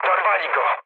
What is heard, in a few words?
aircraft destroyed mood low, speech pol